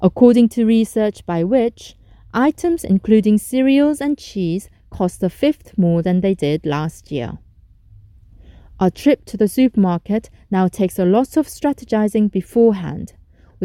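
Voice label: Korean, accented English; GoLocalise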